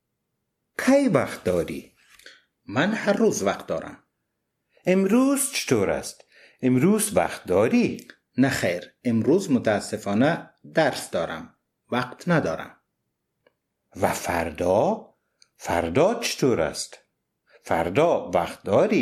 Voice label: Dialog, Dari Persisch